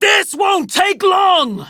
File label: Enemy Spotted Female